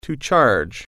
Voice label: "charge", English